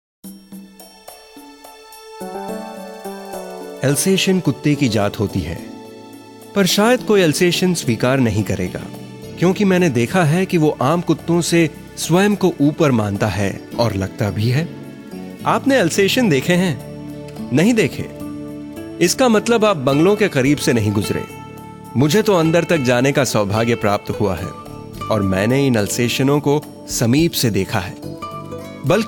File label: Hindi VO Documentary, MALE